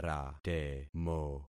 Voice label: "ratemo", concat syllables; Affixing experiment

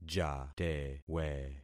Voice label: "jatewe", concat syllables; Affixing experiment

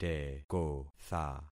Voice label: "tekotha", concat syllables; Affixing experiment

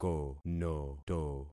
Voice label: "konoto", concat syllables; Affixing experiment

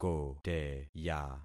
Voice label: "koteya", concat syllables; Affixing experiment

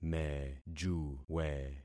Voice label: "mejuwe", concat syllables; Affixing experiment

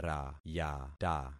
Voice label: "rayata", concat syllables; Affixing experiment

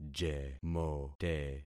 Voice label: "jemote", concat syllables; Affixing experiment

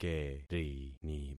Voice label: "kerini", concat syllables; Affixing experiment

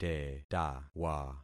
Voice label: "tetawa", concat syllables; Affixing experiment

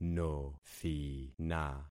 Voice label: "nothina", concat syllables; Affixing experiment

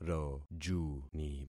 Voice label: "rojuni", concat syllables; Affixing experiment

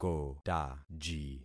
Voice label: "kotaji", concat syllables; Affixing experiment

